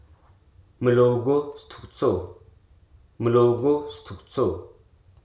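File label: "jest", English, Hruso dictionary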